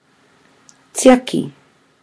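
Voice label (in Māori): "tiaki", Māori word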